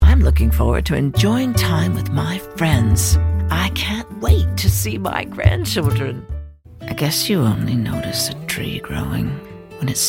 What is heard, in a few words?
Adult Senior